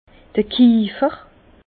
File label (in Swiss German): "kiefer", Bas Rhin; vin; tonnelier